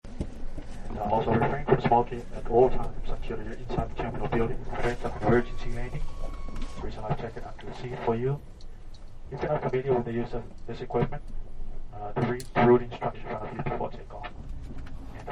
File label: Pilot Ready For Take Off, PA announcement; Pa Announcements; Voice; Partners In Rhyme